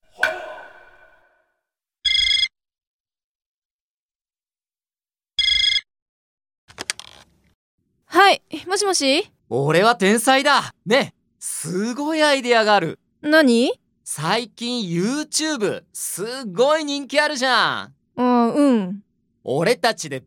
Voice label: dialog; JapanesePod101; Beginner Season 1